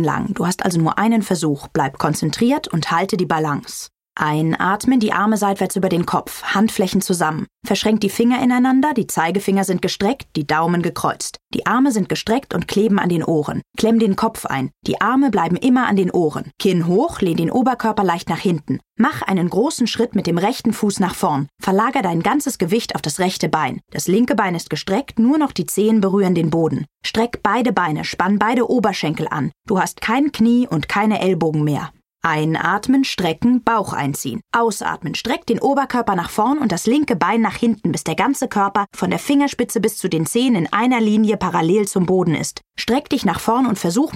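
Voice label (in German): yoga hörbuch balancierstangenhaltung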